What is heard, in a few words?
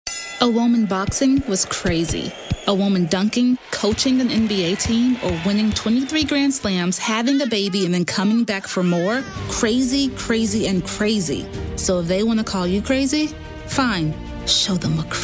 Commercial